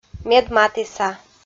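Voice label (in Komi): "медматіса", Komi, female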